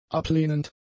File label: "ablehnend", German; adjectives